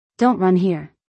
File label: Don’t run here. English; Time and Routine